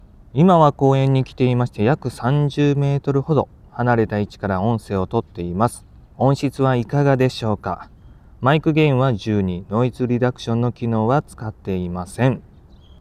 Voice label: maono wireless microphone